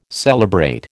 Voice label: "celebrate", American

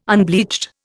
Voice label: "unbleached", Indian

